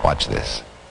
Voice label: "watch this", male; Voice